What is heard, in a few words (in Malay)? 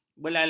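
"belalak", Malay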